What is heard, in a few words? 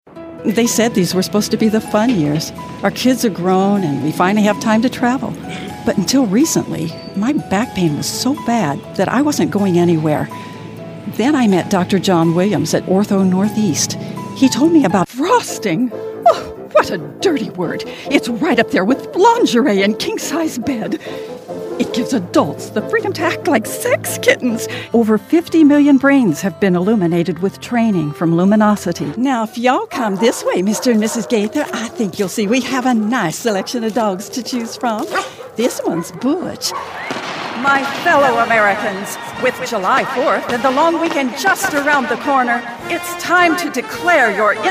Commercial